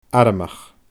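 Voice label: "aramach", Scottish Gaelic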